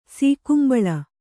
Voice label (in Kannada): "ಸೀಕುಂಬಳ", Kannada